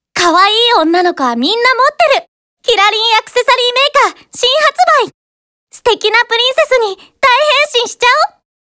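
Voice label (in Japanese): ナレーション, ボイスサンプル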